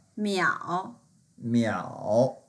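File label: "miao3", Mandarin Chinese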